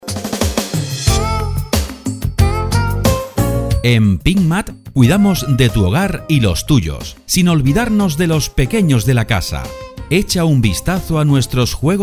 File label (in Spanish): JUGUETES EDUCATIVOS ESPANOL; pinturas andalucia